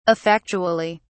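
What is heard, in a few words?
"effectually", British English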